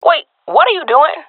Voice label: "taking fire friendly", swat; Source Model Packs; Counter Strike Model Pack; CS2; swat epic